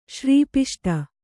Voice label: "ಶ್ರೀಪಿಷ್ಟ", Kannada

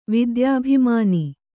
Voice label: "ವಿದ್ಯಾಭಿಮಾನಿ", Kannada

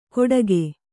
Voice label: "ಕೊಡಕೆ", Kannada

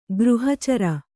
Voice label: "ಗೃಹಚರ", Kannada

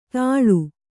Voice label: "ತಾಳು", Kannada

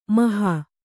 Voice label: "ಮೋಹ", Kannada

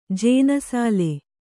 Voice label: "ಜಾನಸಾಲೆ", Kannada

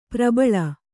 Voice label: "ಪ್ರಬಲಿ", Kannada

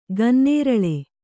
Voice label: "ಗನ್ನೇರಳೆ", Kannada

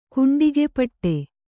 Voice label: "ಕುಂಡಿಗೆಪಟ್ಟೆ", kannada

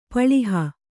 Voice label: "ಪಳಿಹ", kannada

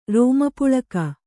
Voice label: "ರೋಮಪುಳಕ", Kannada

